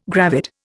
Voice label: "gravid", Indian